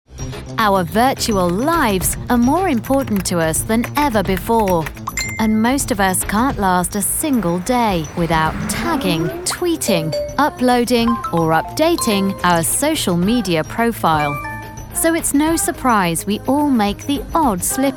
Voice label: narrative